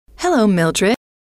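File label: hello, female; trainer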